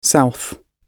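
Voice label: "south", British English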